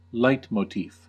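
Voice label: "leitmotif", US English; pronunciations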